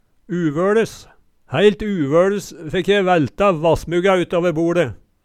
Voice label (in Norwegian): uvøLes, Numedalsmål; Hæilt uvøLes fekk je vælta vassmugga utåver boLe